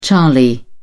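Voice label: "charlie", British English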